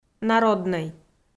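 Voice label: "народнӧй", Komi, female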